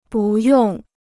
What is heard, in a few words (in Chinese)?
"不用", Chinese